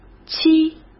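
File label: "漆", Mandarin Chinese; chinese flashcards; hsk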